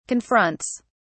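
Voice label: "confronts", British English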